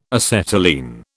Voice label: "acetylene", British